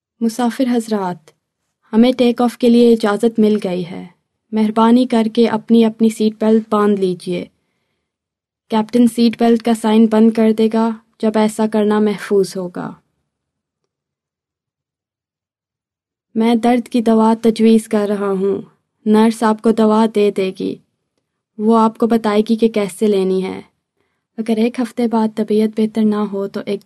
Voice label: Urdu